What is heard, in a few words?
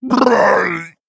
Male Tuskar Death